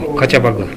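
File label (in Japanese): "kachabagu", 南山形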